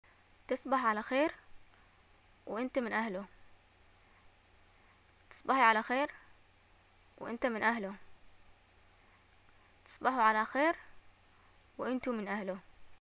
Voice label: greeting goodnight, arabic